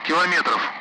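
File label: Speech, Russian; Allied Flight; DCS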